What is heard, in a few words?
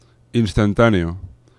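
"instantaneo", Spanish; laboratori fonetica; nasals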